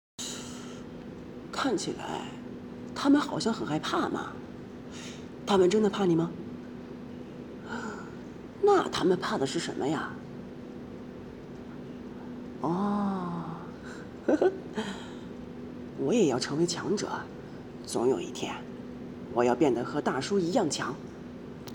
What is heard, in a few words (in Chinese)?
少年